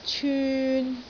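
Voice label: "cyun3", Cantonese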